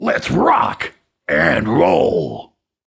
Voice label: Stone Druid Taunt

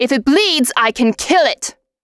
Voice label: Female Elven Archer

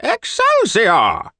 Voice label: wizzard taunt confirm

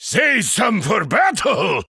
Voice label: voice battle brewmasters taunt

